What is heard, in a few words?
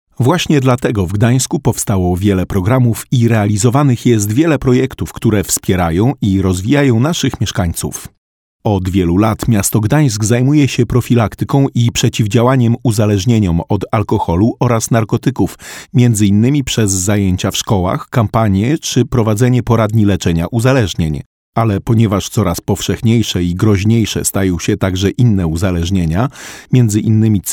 POLISH MALE